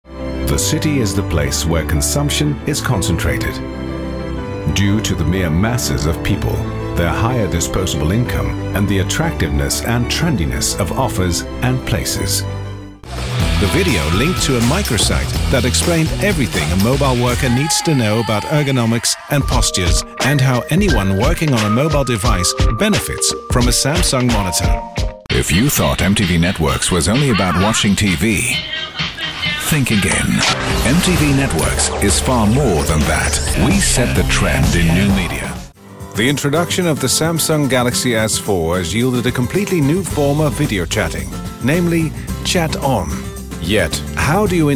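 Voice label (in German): British English, Fremdtake Mix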